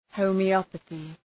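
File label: "homeopathy", English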